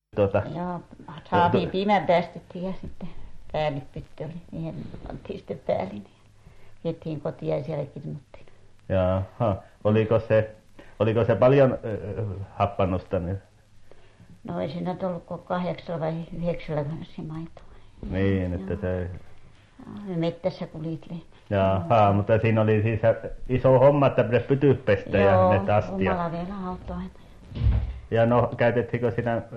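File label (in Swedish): Övertorneå Norrbotten, Svenska sagor, dialekter; Folkminnen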